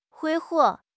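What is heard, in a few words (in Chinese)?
"挥霍", Mandarin Chinese; HSK6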